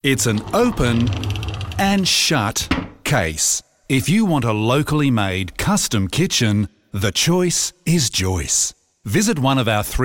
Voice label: Radio Ad; Joyce Kitchens